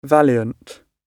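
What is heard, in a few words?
"valiant", British English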